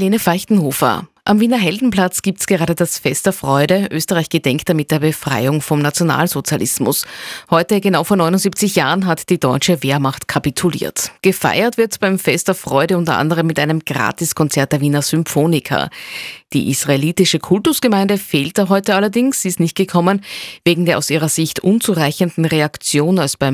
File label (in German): nachrichten; Life Radio; Tirol